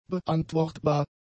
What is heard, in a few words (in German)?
"beantwortbar", German; adjectives